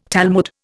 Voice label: Talmud, Indian

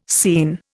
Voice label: "scene", Indian

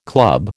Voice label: "club", American

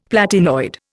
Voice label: "platinoid", Indian; Dictionary

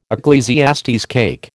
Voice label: "Eccles cake", American

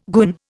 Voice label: "goon", Indian

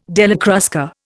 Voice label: "Della Crusca", Indian

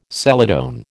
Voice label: "celadon", American

